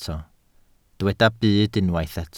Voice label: "byd", Welsh